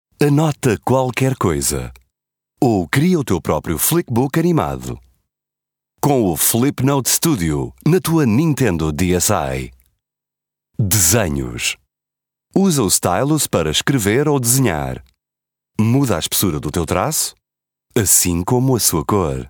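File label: Portuguese informative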